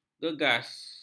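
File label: "gegas", Malay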